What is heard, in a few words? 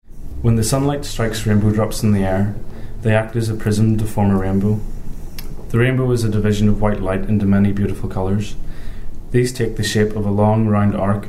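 passage, Irish English, The North of Ireland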